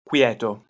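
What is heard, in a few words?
"kwieh1to", Proto-Indo-European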